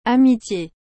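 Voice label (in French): "Amities", French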